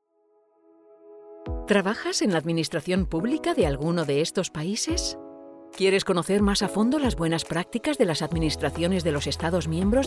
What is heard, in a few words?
E LEARNINGS MUSIC; DEMOREEL VIDEO TAIEX